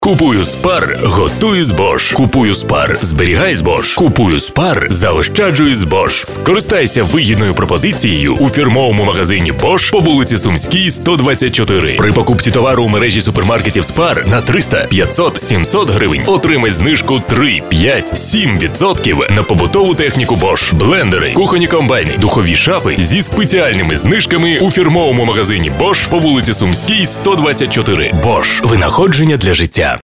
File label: ukrainian commercial